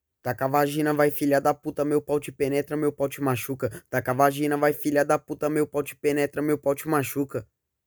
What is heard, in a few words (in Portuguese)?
acapella